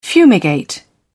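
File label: "fumigate", British English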